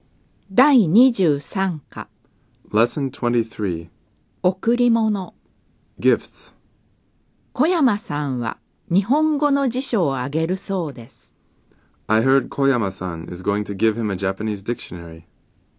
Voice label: Dialog